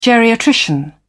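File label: "geriatrician", British English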